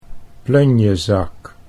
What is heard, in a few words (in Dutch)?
"plunjezak", Dutch